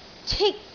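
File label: "cik1", Cantonese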